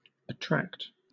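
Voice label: "attract", British English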